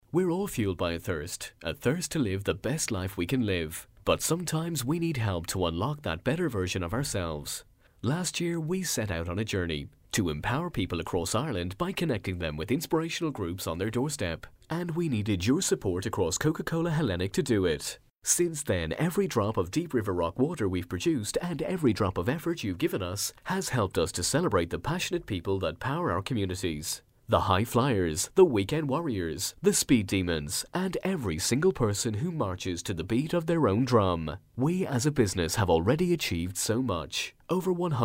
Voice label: english irish training e-learning